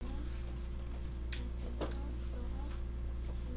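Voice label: "cartoon", spoken word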